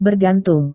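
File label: "bergantung", Indonesian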